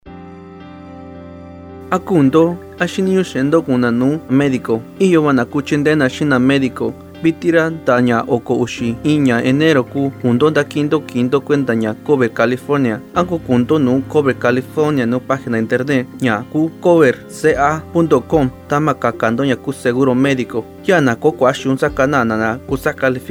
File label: Medi-Cal MIXTECO